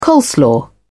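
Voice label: "coleslaw", British English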